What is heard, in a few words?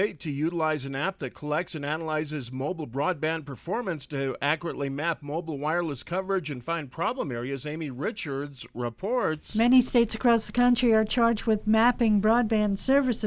radio news; Wyoming